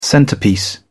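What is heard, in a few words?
"centerpiece", British English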